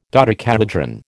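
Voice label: "dodecahedron", American English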